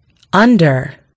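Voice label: "under", British English; gcompris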